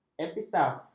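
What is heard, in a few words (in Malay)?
"epitaf", Malay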